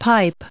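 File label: "pipe", English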